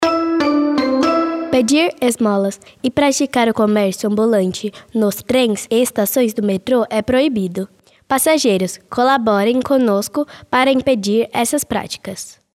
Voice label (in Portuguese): metrosp avisos sonoros criancas